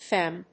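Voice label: "fem.", English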